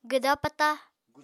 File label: "gwda-pata", Apatani